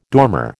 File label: "dormer", American